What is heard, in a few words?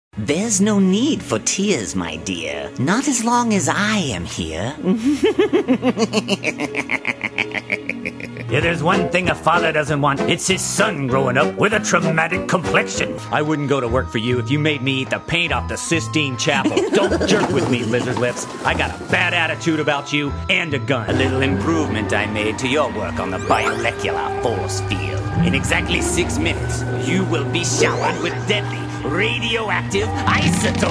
English American animation